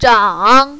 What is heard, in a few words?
"zhang3", mandarin, female; 长; 長; shēng; sù; чжан3